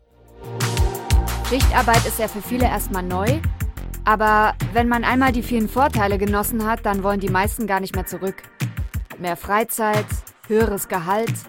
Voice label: German

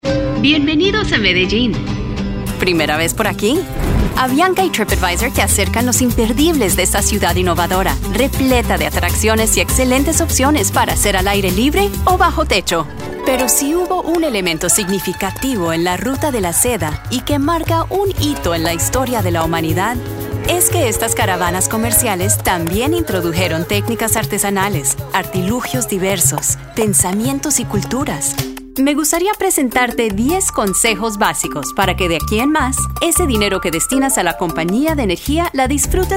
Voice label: spanish colombian narration

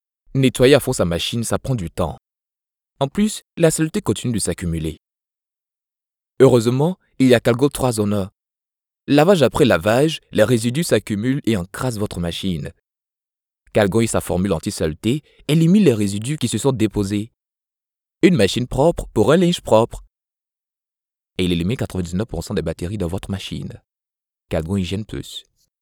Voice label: French promos; doubleur professionnel africain

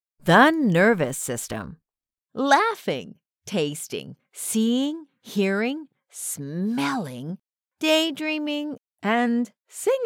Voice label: English canadian e-learning

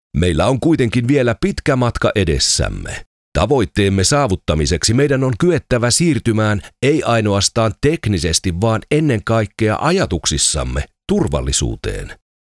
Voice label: finnish corporate videos

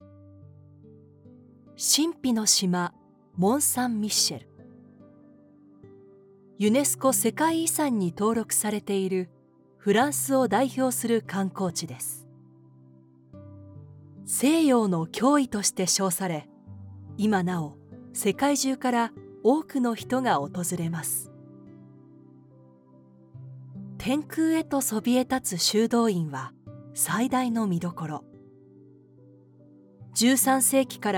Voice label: japanese audio guides

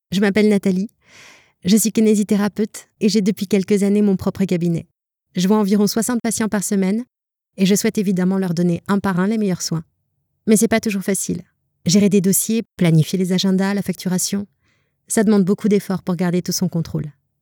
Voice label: french narration

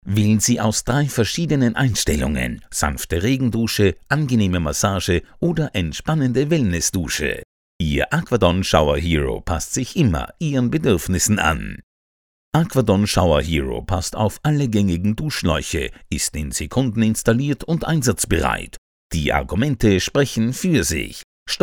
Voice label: german e-learning

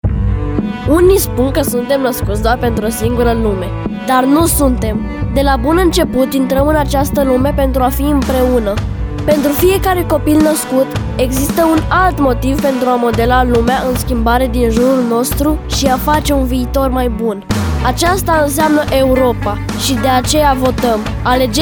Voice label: romanian corporate videos

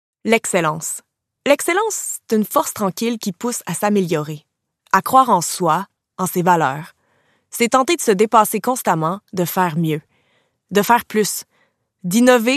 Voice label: french canadian

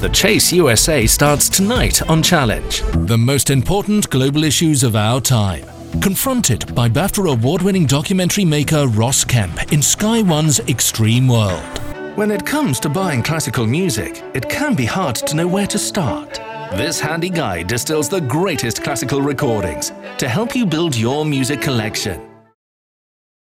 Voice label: english british promos

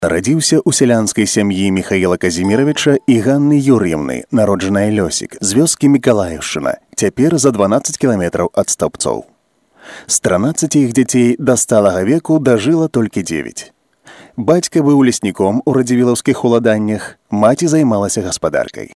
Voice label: belarusian commercial

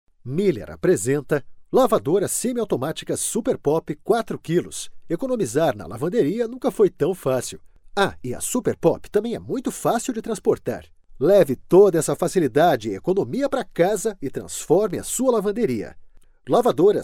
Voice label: portuguese brazilian promos

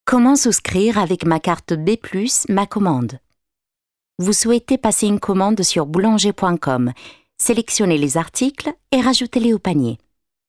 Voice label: french e-learning

